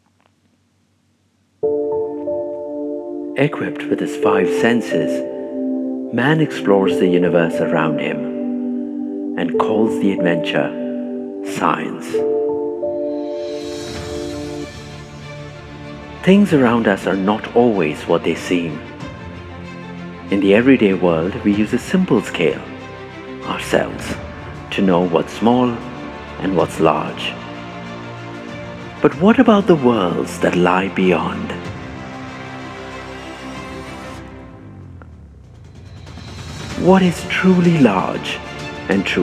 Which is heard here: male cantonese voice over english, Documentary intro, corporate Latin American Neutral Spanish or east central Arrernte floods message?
Documentary intro